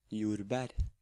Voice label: "Jordbær", Norwegian